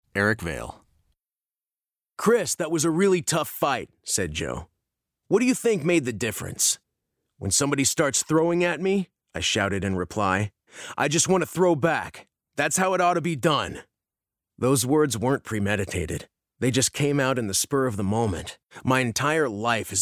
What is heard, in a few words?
Audiobook